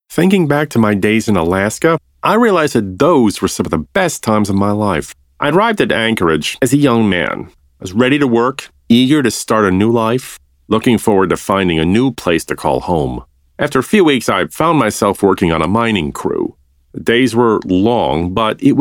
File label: Audiobook Memoir 1st Person Narra